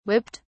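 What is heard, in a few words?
"whipped", British English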